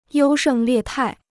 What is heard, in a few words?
"优胜劣汰", Chinese